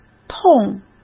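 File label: "痛", Mandarin Chinese; chinese-flashcards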